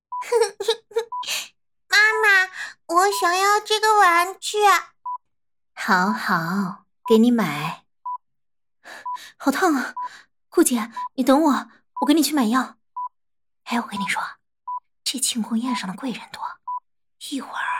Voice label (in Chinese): 试音 女角色; 玉儿